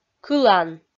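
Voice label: "кылан", Komi-Zyrian, female; kpv audio dict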